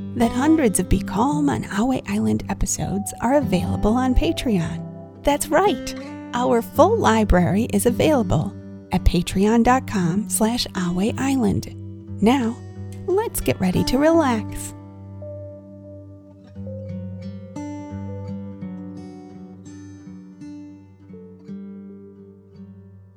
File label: relaxing rain a kids relaxation and calming tale